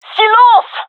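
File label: request quiet, gendarmerie female; vo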